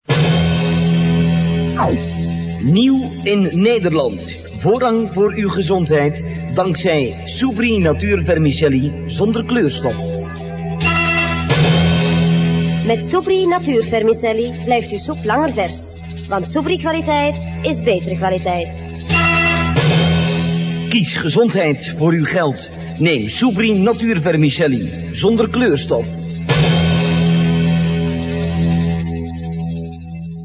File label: commercial